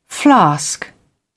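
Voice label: "flask", British English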